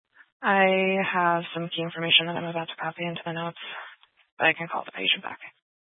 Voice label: Grand Junction Fire Department Dispatch